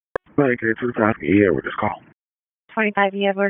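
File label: Dispatch 1, P25; WSO DISP 1; wake p25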